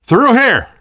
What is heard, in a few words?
world hospital, namvet, survivor voice; Left 4 Dead 2; left4dead2 dlc3